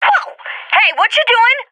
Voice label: taking fire friendly, vo agents; fbihrt epic